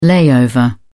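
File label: "layover", British English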